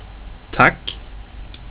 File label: tack, sv